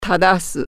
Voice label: correct, japanese